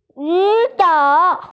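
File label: "raise hand", Mandarin; ju3shou3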